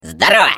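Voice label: Defective Turret turret defect return, Russian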